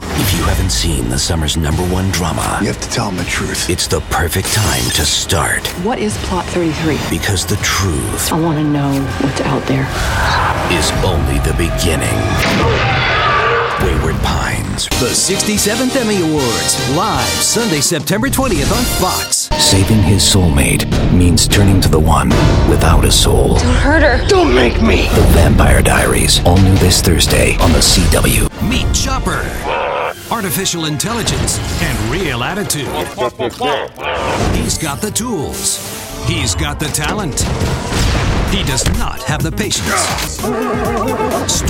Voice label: Promo